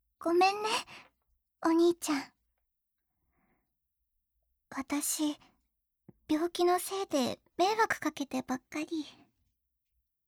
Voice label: Sickly Little Sister